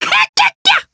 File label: Happy, jp; Lakrak; kingsraid